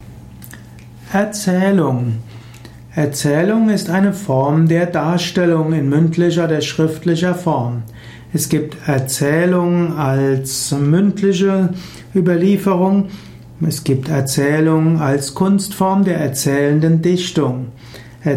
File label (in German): Erzaehlung